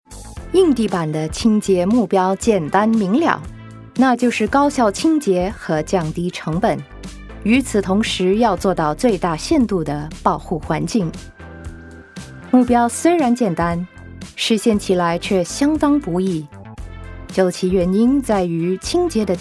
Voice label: Mandarin

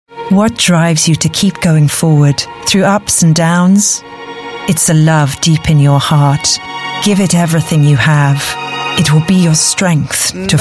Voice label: UK English Launch Campaign; Mercedes Benz GLE

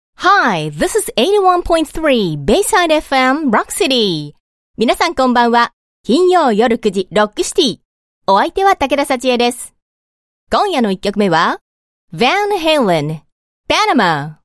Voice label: Japanese Female English

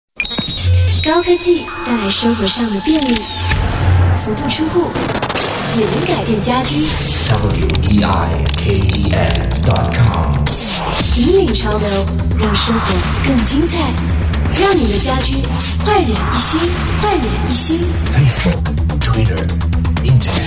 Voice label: Mandarin, Mandarin China Female; Weiken Dot Com

